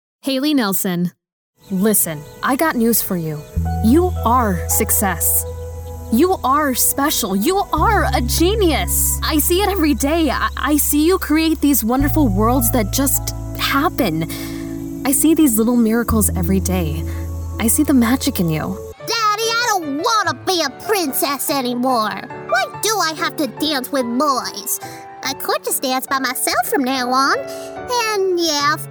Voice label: Character Reel